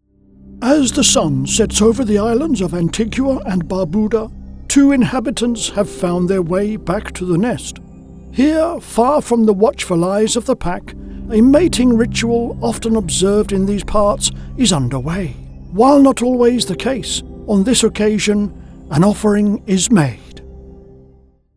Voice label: Style Of D. Attenborough

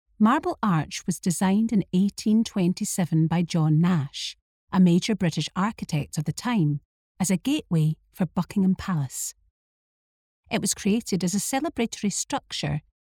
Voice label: Narrative Reel

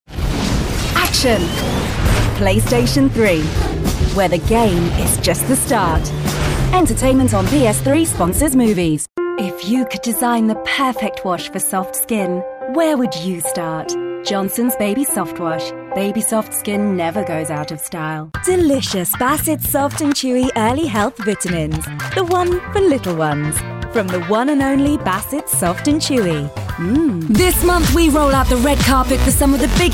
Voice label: Commercial

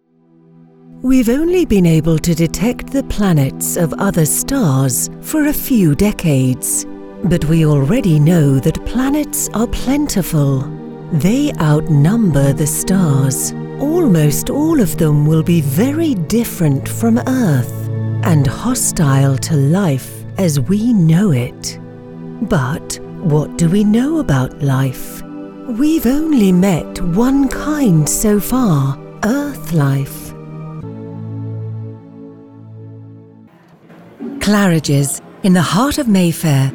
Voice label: Documentary

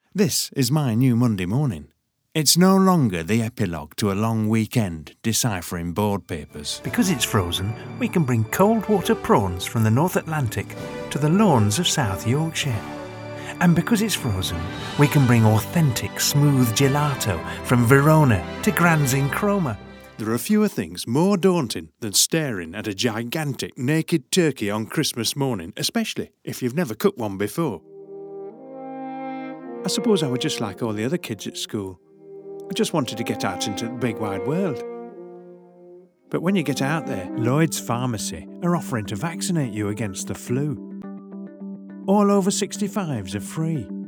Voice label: northern montage

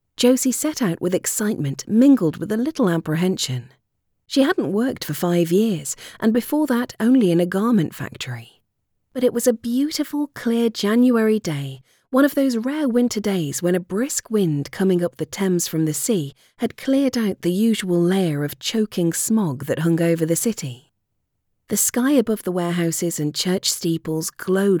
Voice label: Audiobook Historical Fiction

